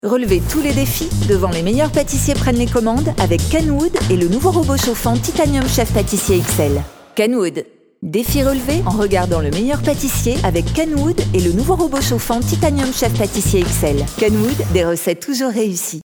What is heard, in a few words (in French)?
billboard souriante chaleureuse; KENWOOD